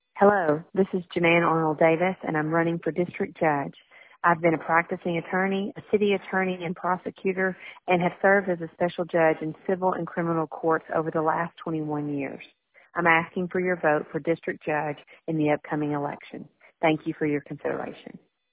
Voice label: Voicemail